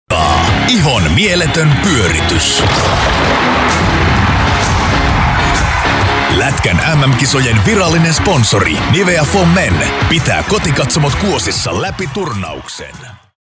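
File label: Finnish, male, commercial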